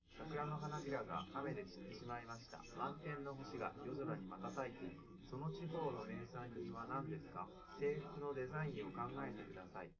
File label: male live rec 250ms 1m; underdetermined; SiSEC11